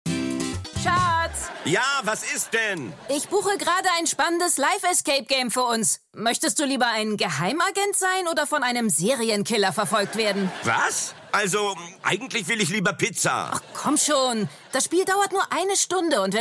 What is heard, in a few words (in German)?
funkspot; escape diem